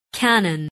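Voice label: "cannon", English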